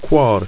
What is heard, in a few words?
"quar", Ido